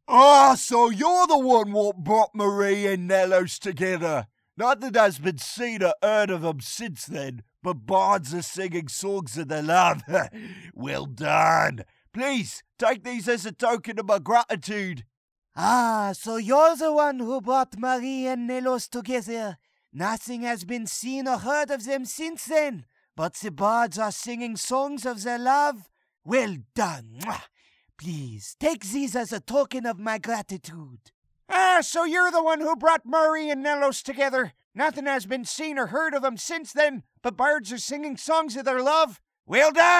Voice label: breton audition; skywind

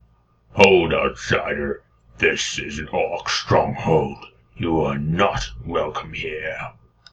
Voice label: orc, voice auditions

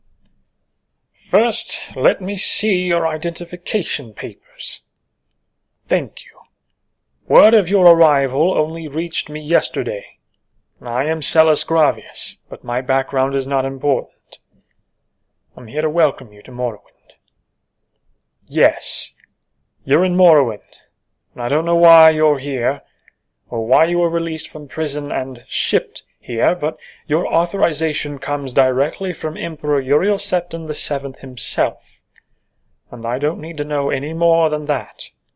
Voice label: older voice casual tone

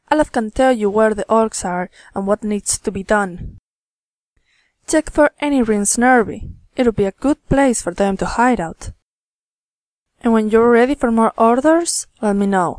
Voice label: female nord voice

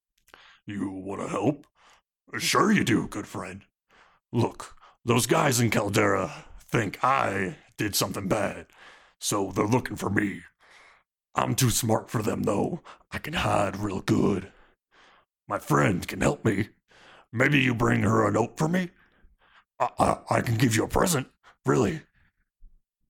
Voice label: orc voice auditions; bugrol gro-baul

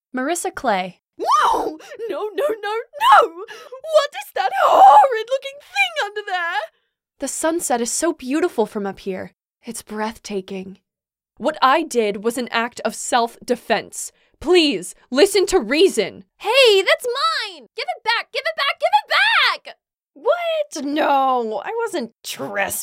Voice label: character, high elf voice auditions

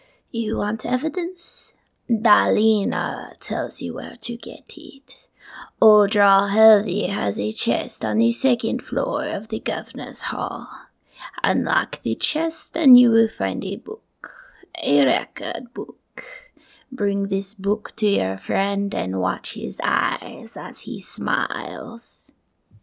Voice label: khajiit female

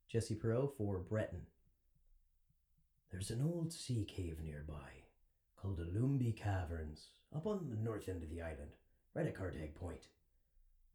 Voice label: breton, breton voice auditions

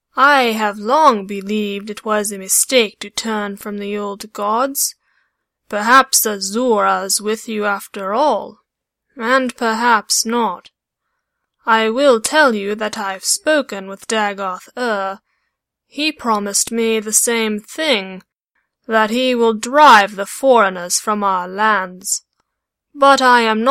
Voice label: dunmer female audition, dark elf voice auditions

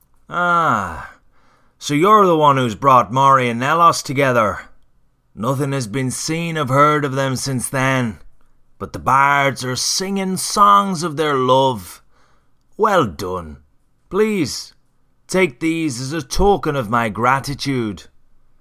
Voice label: breton irish

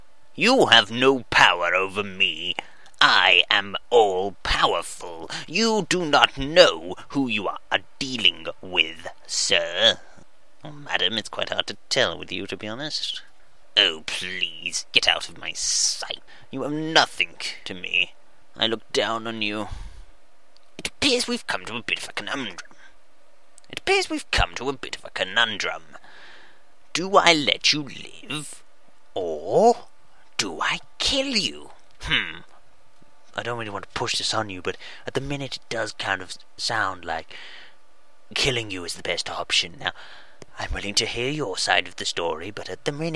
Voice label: another creepy british

